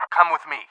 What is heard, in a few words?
come, English